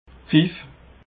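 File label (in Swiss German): "pfiff", Haut Rhin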